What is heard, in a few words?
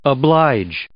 "oblige", English